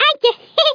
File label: Flawless, Speech Dutch